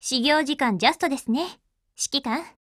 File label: HELLO, JP; NPC Seele